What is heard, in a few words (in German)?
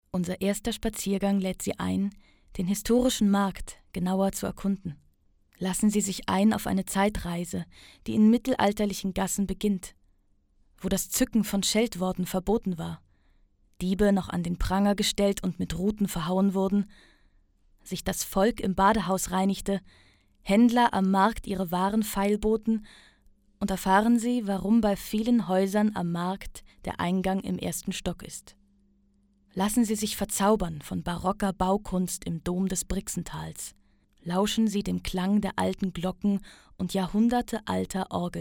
demo doku